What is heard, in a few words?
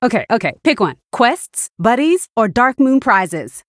Female Human Flavor